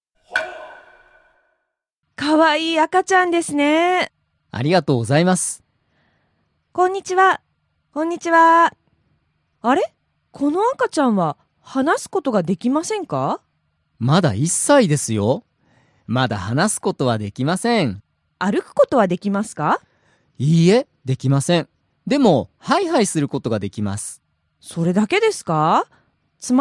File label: dialog; JapanesePod101; Beginner Season 1